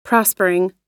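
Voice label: "prospering", American English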